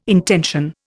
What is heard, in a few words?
"intension", Indian